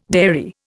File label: "dairy", Indian